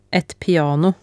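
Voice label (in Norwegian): "et piano" Norwegian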